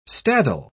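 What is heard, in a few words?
"staddle", British English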